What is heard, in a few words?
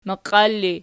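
"maqial" ergative, Archi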